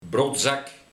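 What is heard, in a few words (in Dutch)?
"brodzak", dialect; Iemand die vals speelt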